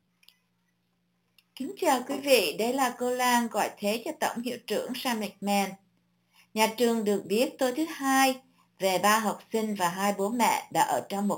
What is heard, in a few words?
vietnamese